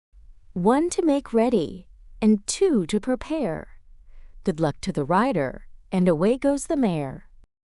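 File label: One to Make Ready, female US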